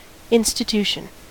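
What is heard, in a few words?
"institution", US English; pronunciations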